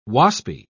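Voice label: "waspy", British English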